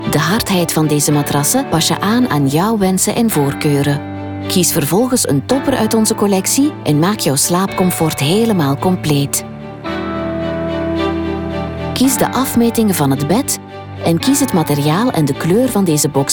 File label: flemish e-learning